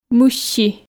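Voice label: "мысси", Komi, female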